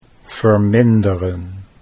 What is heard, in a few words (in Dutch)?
"verminderen", Dutch